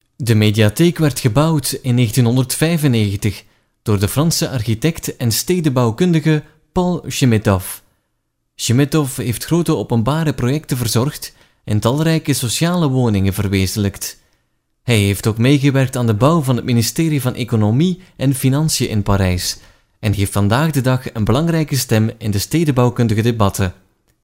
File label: flemish audioguide, male